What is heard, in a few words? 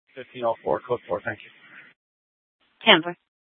Scottsdale PD Sth Dispatch, scanner